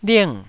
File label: "ling4", Taiwanese syllable